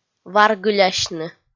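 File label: "варгылясьны", Komi, female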